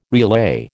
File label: "relay", American